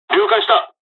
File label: dialogs japanese; War Thunder